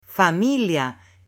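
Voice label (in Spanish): "familia", Spanish, male; AppLenguaje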